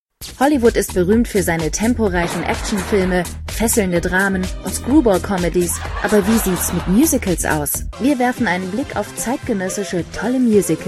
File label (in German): Off Kommentar